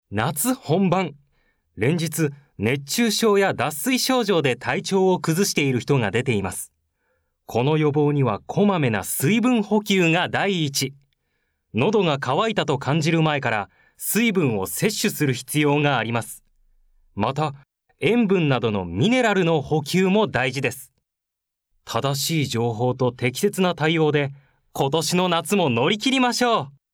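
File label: narration; 男性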